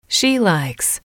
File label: she likes, English